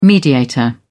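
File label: "mediator", English, British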